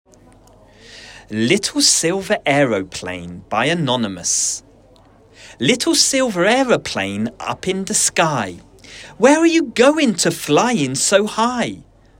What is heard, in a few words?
Girls Solo Verse